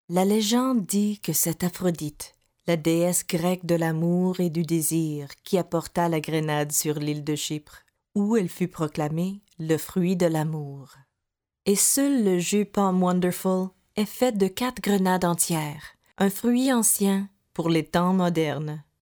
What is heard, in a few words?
french